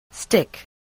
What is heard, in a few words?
"stick", voice